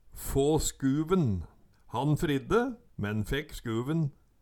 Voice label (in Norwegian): få skuven, Numedalsmål